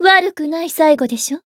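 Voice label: Voice win, Japanese; toova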